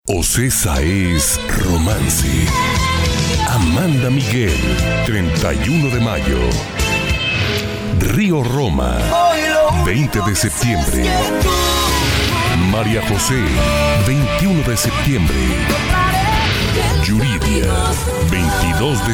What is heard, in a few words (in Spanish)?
romantico varios